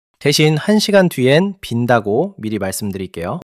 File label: neutral